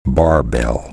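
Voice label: "barbell", spoken word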